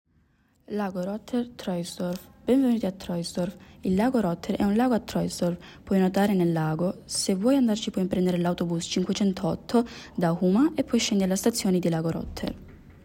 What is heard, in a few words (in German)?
italienisch; Rotter See